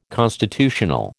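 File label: "constitutional", American English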